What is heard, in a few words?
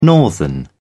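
"northern", British English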